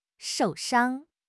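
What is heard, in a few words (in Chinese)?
"shou4 shang1", Mandarin Chinese; 汉语口语新时代-初级下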